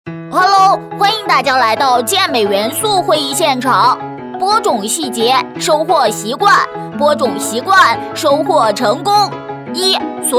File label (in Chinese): 男童萌宠形象; 会前须知